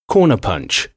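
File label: "corner punch", English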